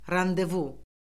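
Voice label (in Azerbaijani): "randevu", Azerbaijani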